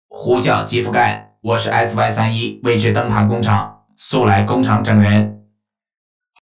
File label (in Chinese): 机器人